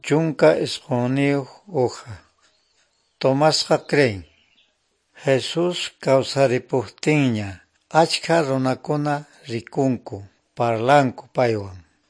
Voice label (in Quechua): good news quechua norte de bolivia apolo